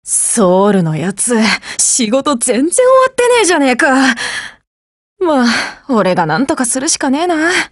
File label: DIALOGUE JP